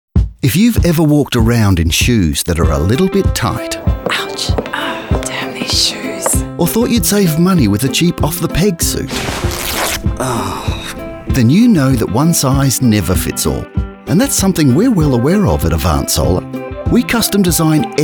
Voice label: Radio Ad; Avant Solar